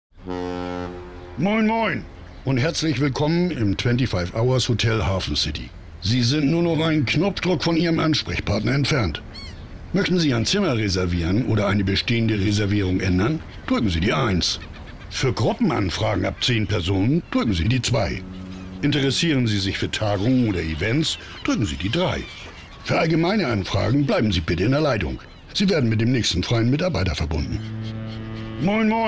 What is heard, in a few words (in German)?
Kombi Ansage d e; 25hours hafencity